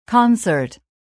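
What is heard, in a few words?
"concert", English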